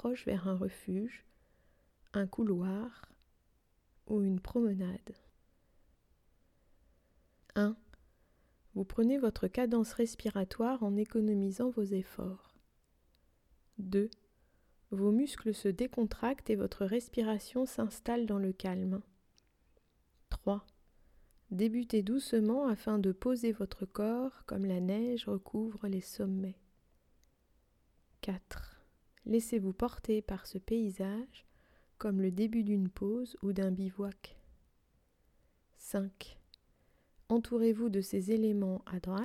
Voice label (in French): Montagne Animal Compter Femme Sans musique